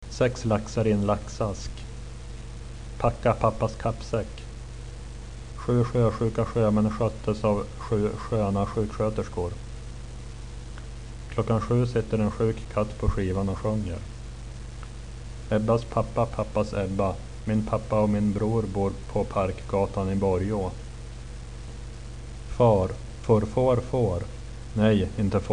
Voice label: tongue twisters, swedish

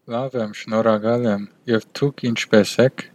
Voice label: fine, armenian western

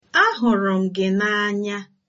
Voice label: i love you, igbo

